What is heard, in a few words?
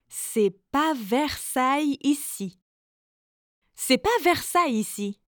french mom phrases